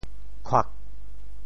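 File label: "khuak4", Teochew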